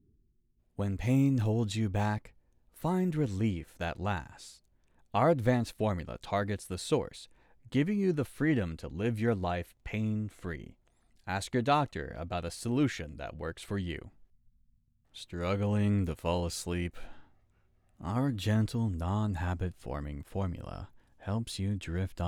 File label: Commercial Samples